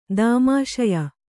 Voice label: "ದೌಮಾಷಾಯ", kannada